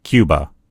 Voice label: "cuba", en US; gcompris; geography